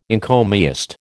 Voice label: "encomiast", American